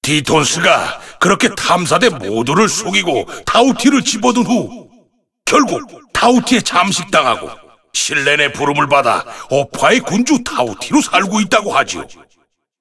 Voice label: cinematic voice; lineage2